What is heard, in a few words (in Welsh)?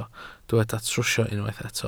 "trwsio", Welsh